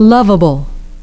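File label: "loveable", English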